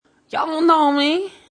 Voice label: Y'all Don't Know Me, Teenager challenges "Y'all don't know me!"; Talking; Voice